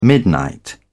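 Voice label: "midnight", British English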